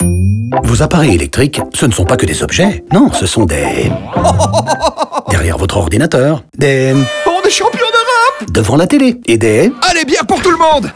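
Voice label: radio music intro, french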